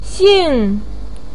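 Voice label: "xing4", Mandarin pinyin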